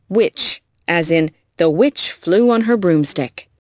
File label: witch